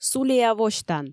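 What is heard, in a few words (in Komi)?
сулея восьтан, Komi, female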